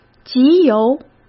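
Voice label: "集邮", Mandarin Chinese; chinese-flashcards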